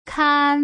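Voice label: "kan", Mandarin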